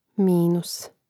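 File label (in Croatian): "minus", Croatian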